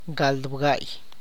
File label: "twenty five", tlacochahuaya